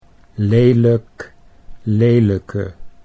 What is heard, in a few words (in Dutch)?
"lelijk lelijke", Dutch